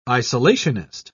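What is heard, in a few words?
"isolationist", British English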